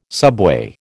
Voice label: "subway", American